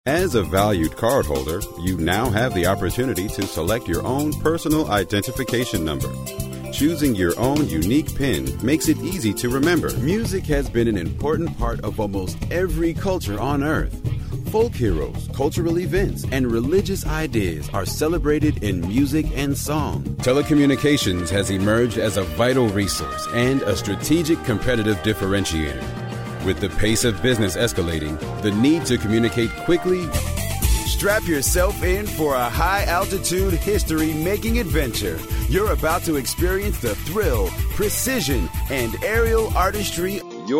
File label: Narration